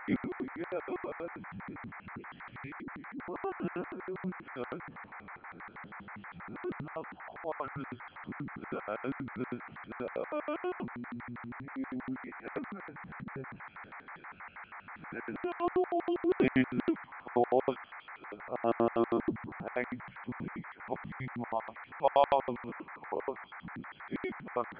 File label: decoded speech, parrot